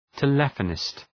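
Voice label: "telephonist", English; {tə’lefənıst}; (Ουσιαστικό)● τηλεφωνήτρια● τηλεφωνητής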